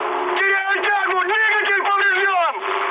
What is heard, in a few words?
damaged engine, mood high, speech ru; War Thunder